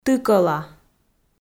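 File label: "тыкӧла", Komi, female; audio dict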